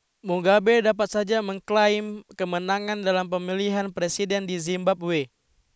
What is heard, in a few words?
news